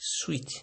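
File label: "sweet", Nigerian Pidgin, male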